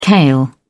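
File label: "kale", British English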